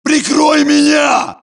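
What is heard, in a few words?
suppress, dialogue, Allies; mohaa; Mission 3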